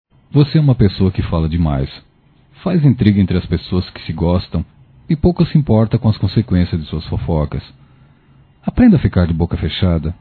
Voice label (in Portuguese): Fofoqueiro Masculino, Broncas; Telemensagens 2017